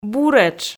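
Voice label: "буретш", Komi, female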